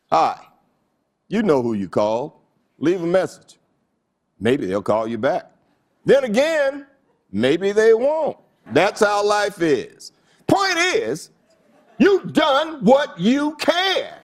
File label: voice greeting